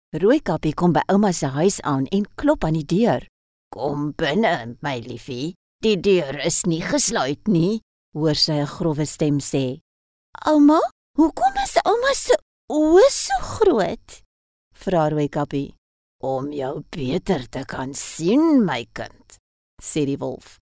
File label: Character Afrikaans